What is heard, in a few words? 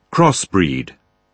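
"crossbreed", British English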